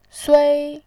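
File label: "sui", Mandarin Chinese, first tone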